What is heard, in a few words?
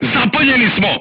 voice message fire in tank, crew tanks serbian